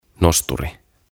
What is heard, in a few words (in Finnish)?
"Nosturi" "Crane"; OTAVA KONSERNI